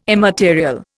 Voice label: "immaterial", Indian